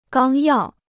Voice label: "纲要", Chinese